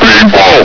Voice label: "people!", hgrunt